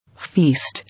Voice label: "Feast", English